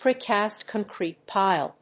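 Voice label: "precast concrete pile", English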